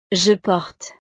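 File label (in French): "je porte", French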